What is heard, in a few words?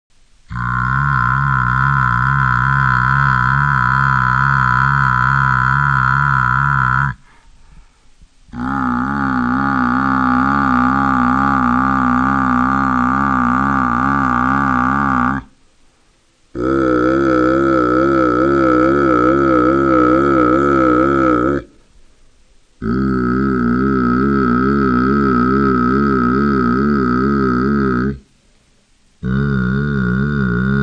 vowels half speed, voice examples; vowel study